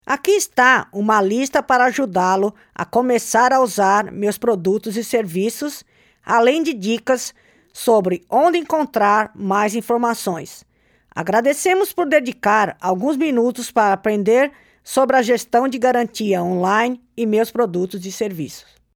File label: Closing resources, Potuguese; delltranslations